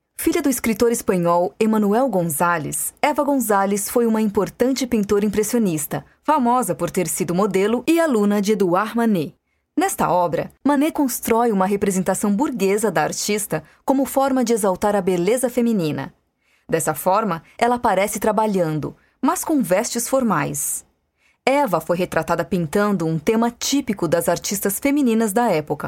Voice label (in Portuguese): Educacional; FTD